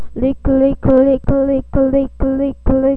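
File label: "lick", spoken word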